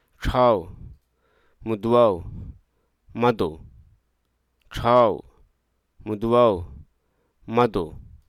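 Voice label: Hruso Aka; discontinue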